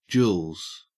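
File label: "jewels", Australian English; pronunciations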